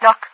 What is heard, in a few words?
lock, overwatch radio voice; Source Engine; video game; npc